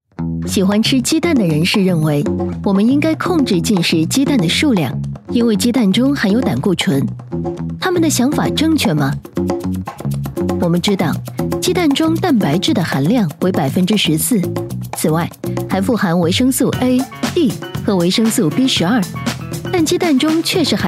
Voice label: 女 食谱百科

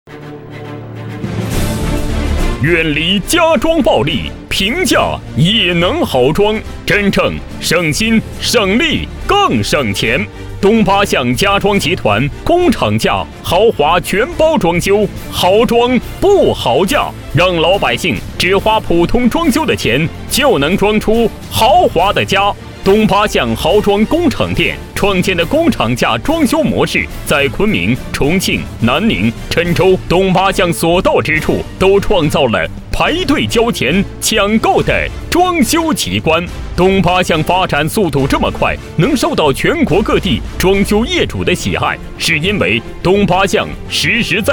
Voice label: 男 电购类; 全包家装

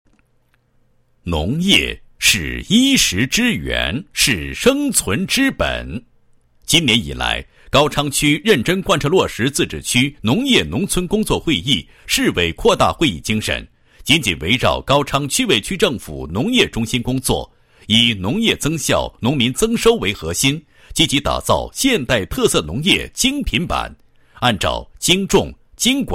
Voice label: 男 纪实 打造现代特色农业精品版纪实

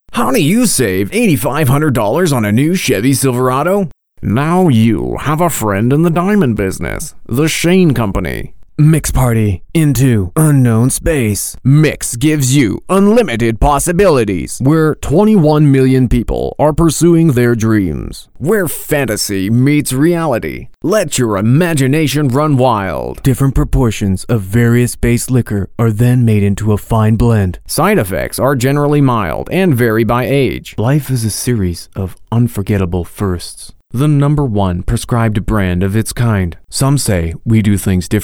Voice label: 外籍英男, 广告合辑

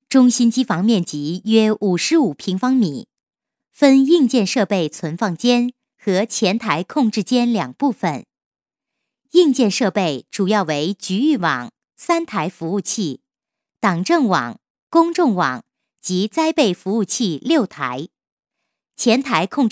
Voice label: 女 课件 机房介绍, 国语女声配音; 省台女配

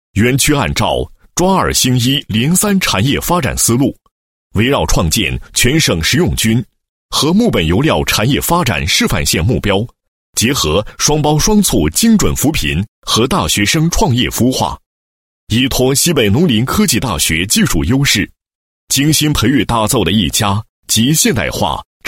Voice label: 男 企业专题 县现代绿色食品产业园区介绍

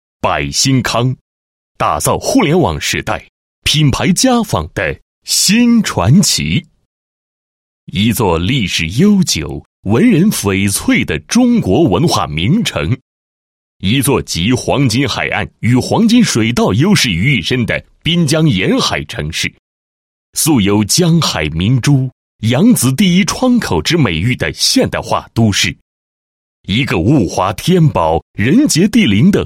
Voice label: 男 企业 宣传; 百兴康品牌家纺宣传

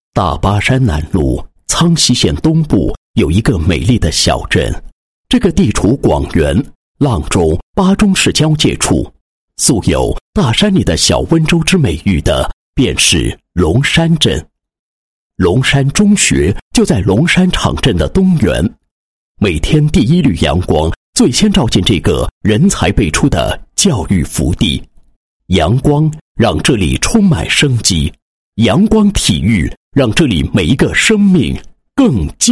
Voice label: 男 专题片 初级中学专题片

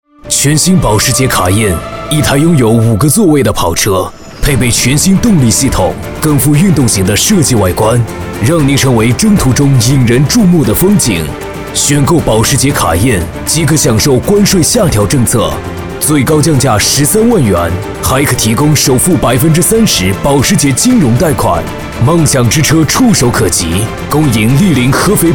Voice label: 男, 广告配音; 保时捷

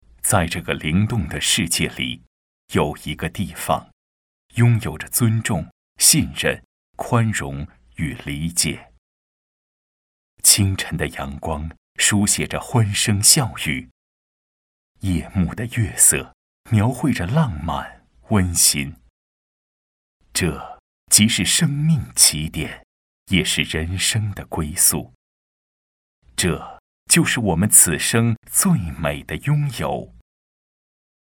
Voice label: 男 宣传片 解说词配音; 君爱

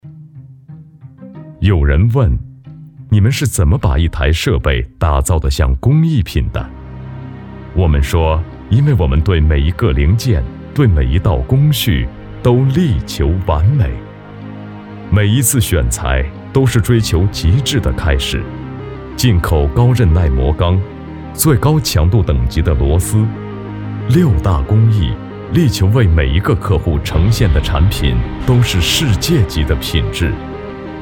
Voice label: 男, 广告; 群峰机械

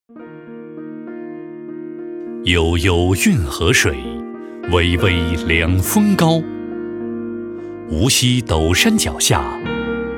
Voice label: 男 茶文化 讲述类

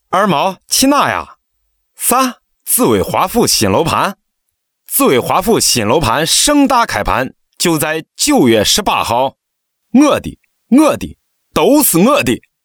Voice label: 方言配音 陕西话

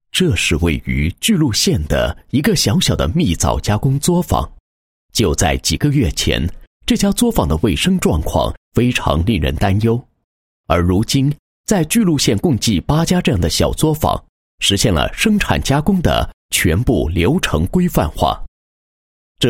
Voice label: 男 政府工作 创建省级食品药品安全县工作纪实; 巨鹿县

